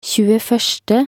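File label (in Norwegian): "tjueførste" Norwegian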